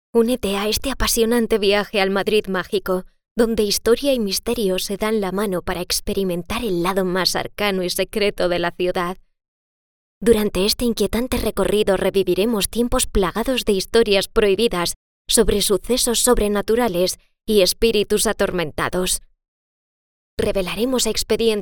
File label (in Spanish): Audioguia; Madrid Magico; LOFT VOICES